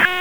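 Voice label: Raleigh Police Northeast District Tactical; wake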